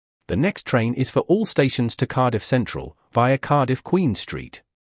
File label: announcement cardiff via queen street english; operator